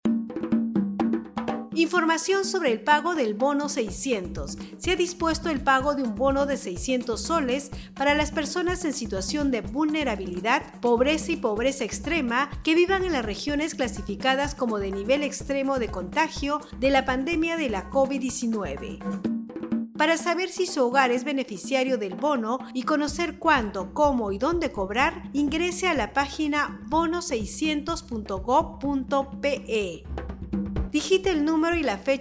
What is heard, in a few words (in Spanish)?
castellano pista amazonica, spot de radio; bono 600; precauciones al salir de mi comunidad